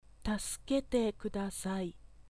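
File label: Japanese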